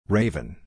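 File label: "Raven", English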